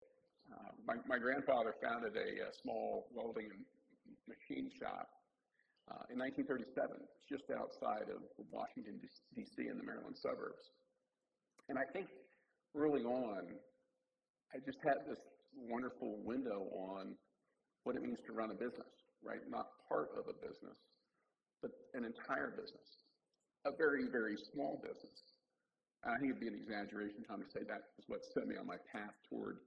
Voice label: voice tape